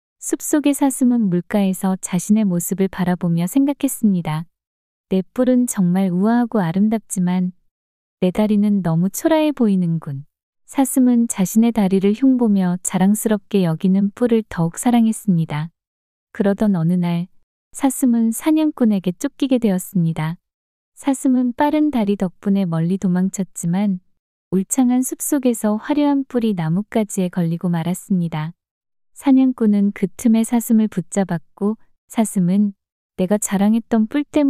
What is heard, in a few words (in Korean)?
이야기 여자; 사슴과 뿔 다리